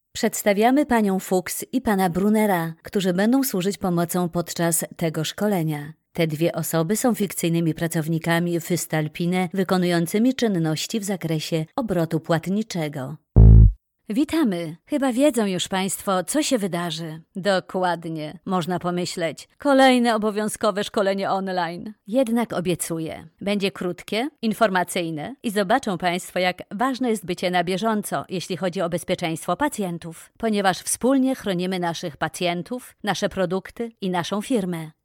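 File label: Polish female e-learning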